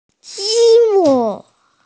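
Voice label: "ji mu" (building blocks), Mandarin Chinese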